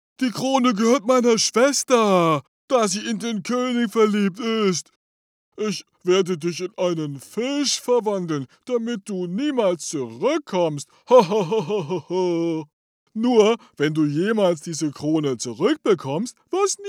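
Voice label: german male character; voice demos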